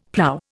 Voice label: "plough", Indian; Dictionary